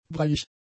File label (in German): "breiig", German; adjectives